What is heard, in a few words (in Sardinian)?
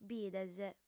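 "bides", female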